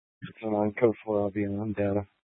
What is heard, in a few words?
Police Dispatch, scanner; Police 1 Dispatch